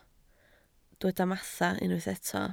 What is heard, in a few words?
"mathau", Welsh